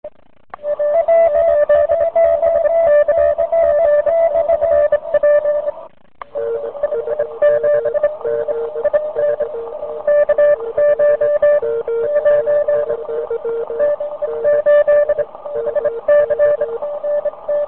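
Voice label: amateur radio contact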